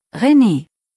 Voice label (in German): "Rene", deutsch, jungennamen